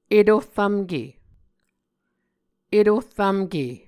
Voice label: "etohrvmke", muscogee